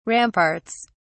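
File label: "ramparts", British English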